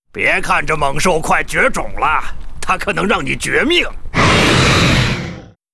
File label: Gryphon rider pissed